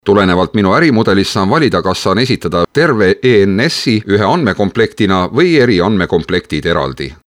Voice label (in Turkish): Estonca Erkek; seslendirme